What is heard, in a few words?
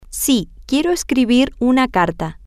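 yes I want to write a letter, Spanish, female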